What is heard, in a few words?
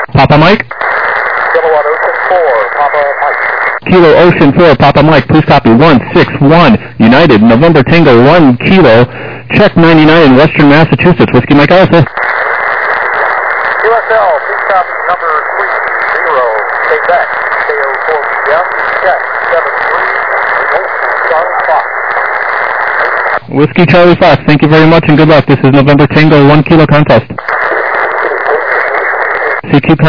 USB 21MHz